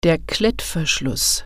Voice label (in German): "velcro", German